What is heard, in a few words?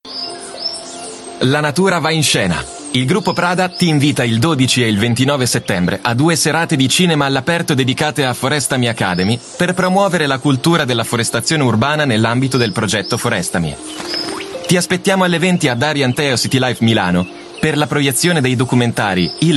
Italian Spot; Prada X Spotify IT